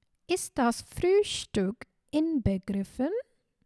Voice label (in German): Ist das Frühstück inbegriffen, German